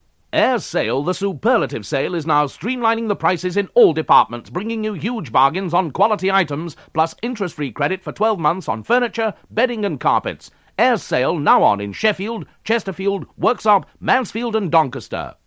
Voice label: Radio Advert, Sale; Radio Hallam; Ayers